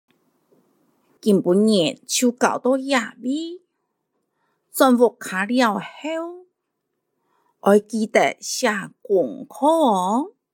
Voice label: 客語 課室生活用語 四縣腔

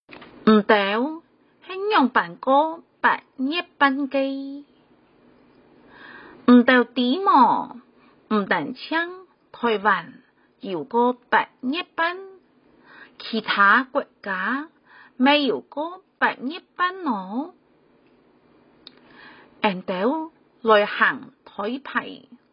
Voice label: 四縣腔; 中秋節海報

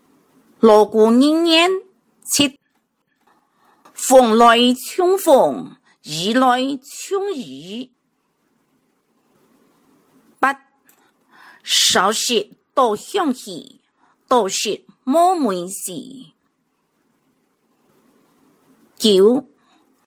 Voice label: 客語俗諺 海陸腔

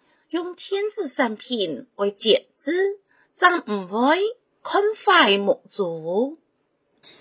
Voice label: (四縣)客語生活常規

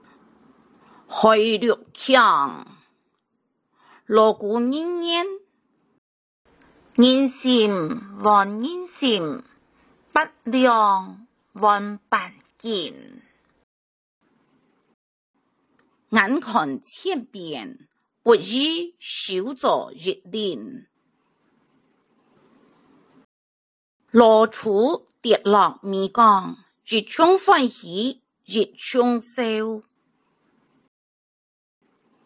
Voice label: 客語俗諺 海陸腔

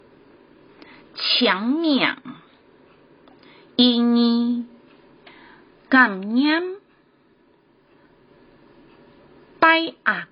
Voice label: 客語(四縣腔); 清明節

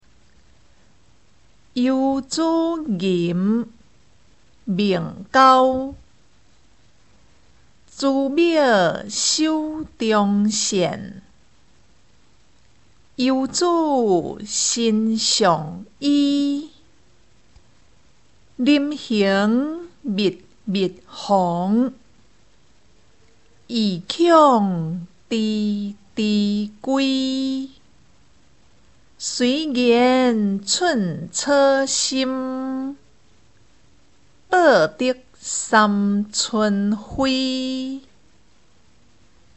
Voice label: 遊子吟 唸詩; 母親節

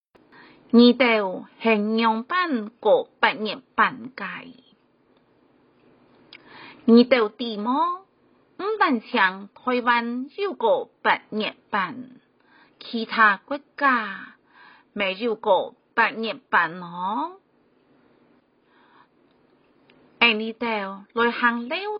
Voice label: 海陸腔; 中秋節海報

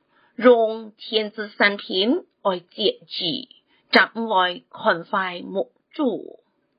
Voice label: (海陸)客語; 生活常規

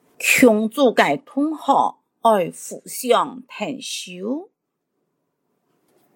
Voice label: 客語 課室生活用語 海陸腔

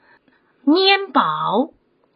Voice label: 「麵包」 四縣; 國小客語清明節語詞

